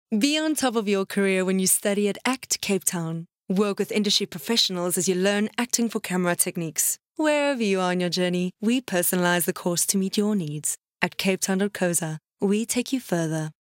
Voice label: Relaxed English Corporate

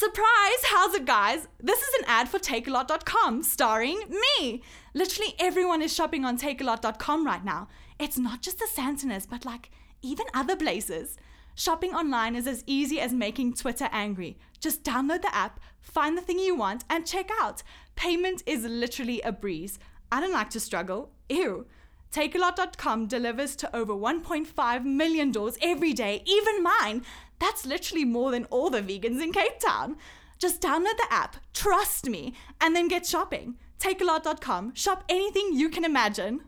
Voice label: Hard Sell Conversational

